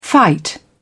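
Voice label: "fight back", English, British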